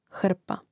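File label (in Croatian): "hrpa", hrvatski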